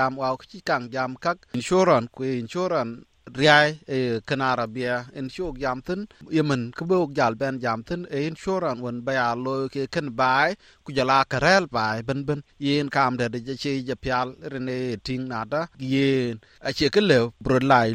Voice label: dinka, podcast; Gëlgël baai ku käk rɛ̈ɛ̈l thin; SBS Dinka